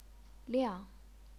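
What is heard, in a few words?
"liang", Chinese, fourth tone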